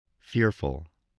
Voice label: "fearful", spelling